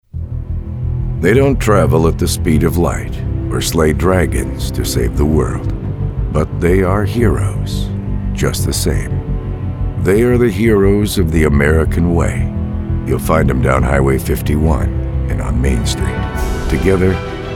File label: StoryTeller